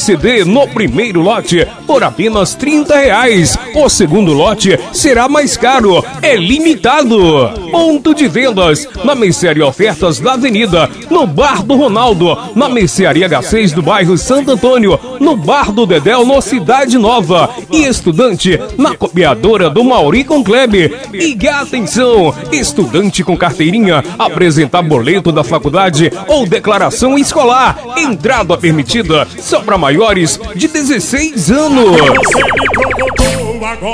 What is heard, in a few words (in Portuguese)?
propaganda forró